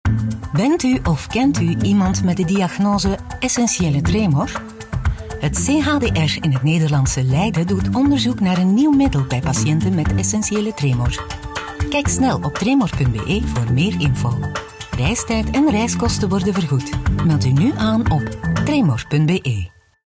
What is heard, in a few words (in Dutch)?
vlaams